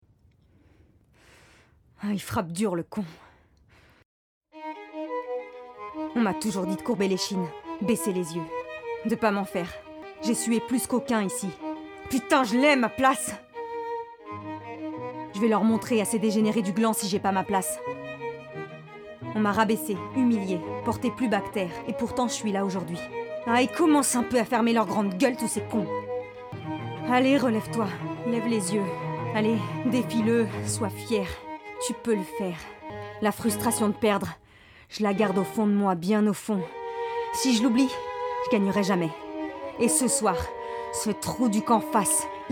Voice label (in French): VOIX OFF; SCENEFICTION